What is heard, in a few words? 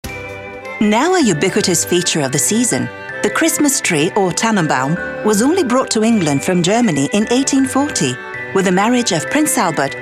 Museum Tour British Accent